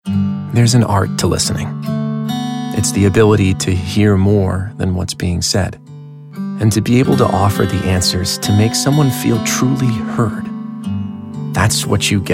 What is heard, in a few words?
Voice Talent Commercial; Commercial Demo